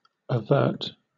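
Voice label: "overt", British English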